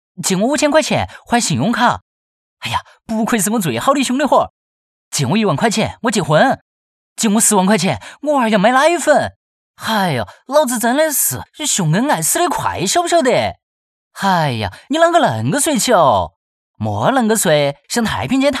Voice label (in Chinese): 四川话 动画剧本 角色 活泼 搞笑